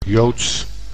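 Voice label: "joods", Dutch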